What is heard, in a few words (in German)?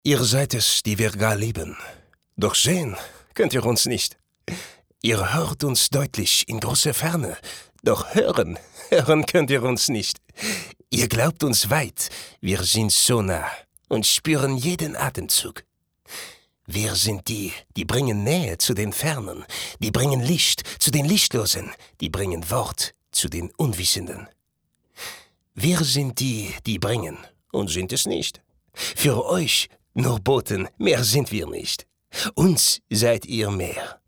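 Akzent französisch, maenner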